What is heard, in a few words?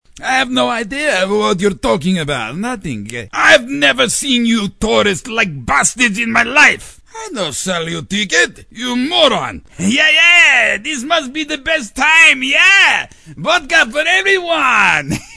RUSSIAN Con Artist; animation